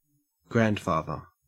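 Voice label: "grandfather", Australian English; pronunciations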